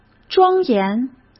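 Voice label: "庄严", Mandarin Chinese; chinese-flashcards; hsk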